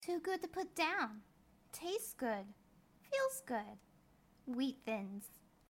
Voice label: wheat thins vo sample

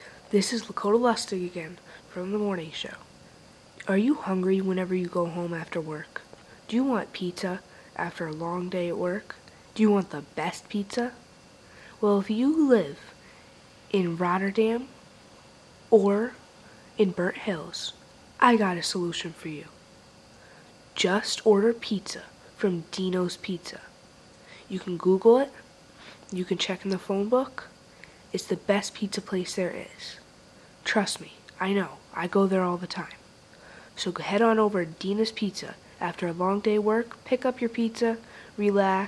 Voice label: commercial

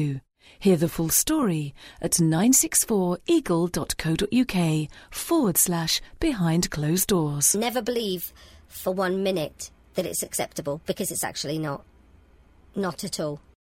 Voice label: domestic abuse radio advert

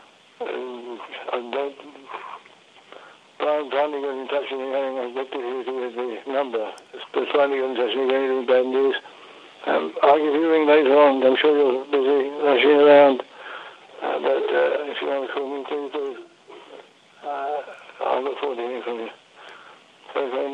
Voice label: answerphone message

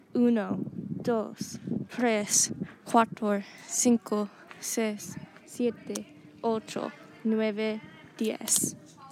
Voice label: counting in spanish

